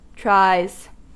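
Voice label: "tries", US English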